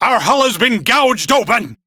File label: Crew See Breach, Male; Warframe; Railjack Crew; Arbiters Hexis